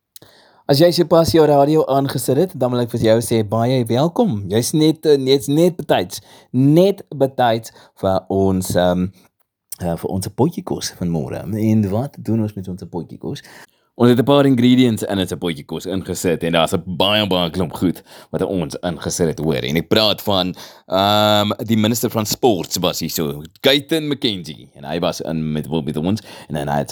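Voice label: Afrikaans broadcast style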